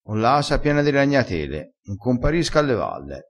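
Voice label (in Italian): "compari", dialettale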